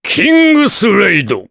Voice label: Vox, jp; Kaulah; Kingsraid